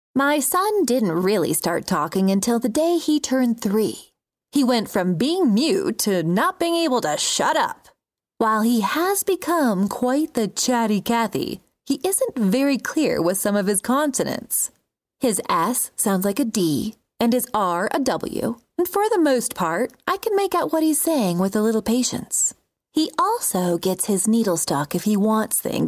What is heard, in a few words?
Narrative